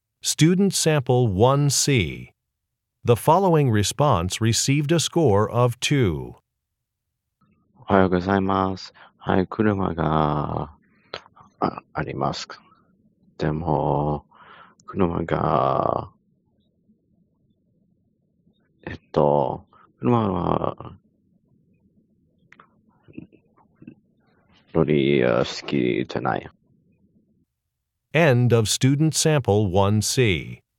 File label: Japanese conversation; AP Japanese Language and Culture